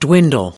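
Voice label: "dwindle", American English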